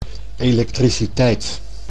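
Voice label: "elektriciteit", Dutch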